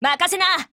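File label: SKILL, Japanese; girlsfrontline; VigneronM2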